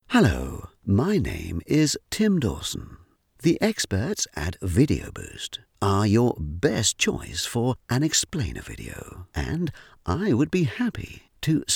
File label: British English, voiceover; internationale voiceover